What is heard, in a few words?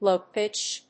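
"low-pitched", English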